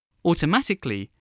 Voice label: "automatically", english